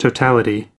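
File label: "totality", US English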